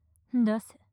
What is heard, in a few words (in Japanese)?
出せ・強く・近・右